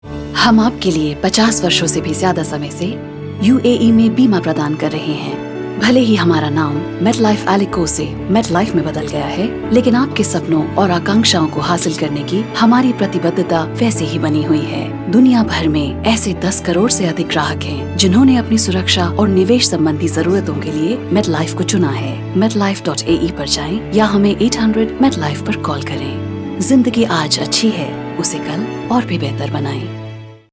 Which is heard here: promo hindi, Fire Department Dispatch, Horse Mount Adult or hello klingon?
promo hindi